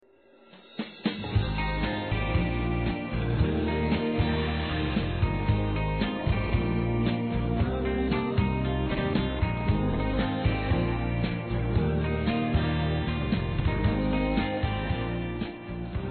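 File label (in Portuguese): Namorados pra Quem Ta Ficando, male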